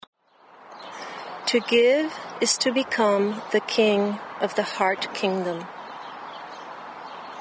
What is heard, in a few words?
poem